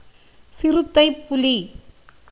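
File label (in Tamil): "சிறுத்தைப்புலி", Tamil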